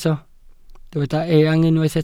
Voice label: "eang", welsh

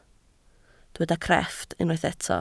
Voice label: "crefft", Welsh

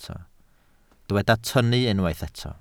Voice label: "tynnu", Welsh

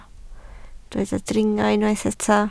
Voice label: "dringo", Welsh

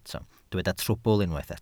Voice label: "trwbl", Welsh

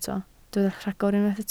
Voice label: "rhagor", Welsh

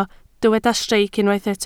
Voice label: "streic", Welsh

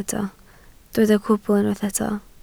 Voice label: "cwbl", Welsh

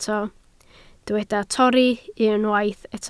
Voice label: "torri", Welsh

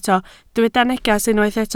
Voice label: "neges", Welsh

